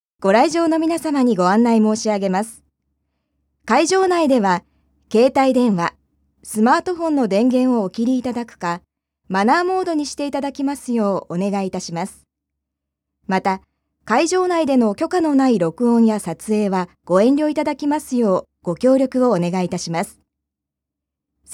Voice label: society narration